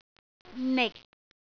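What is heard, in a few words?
"nik6", Cantonese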